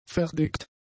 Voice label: "verdickt", German; adjectives